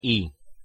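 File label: "i", Spanish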